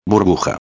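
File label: "burbuja", Spanish; ortografia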